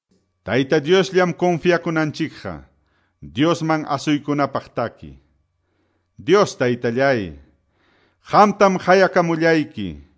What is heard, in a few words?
salmos, quechua ayacucho